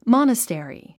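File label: "monastery", English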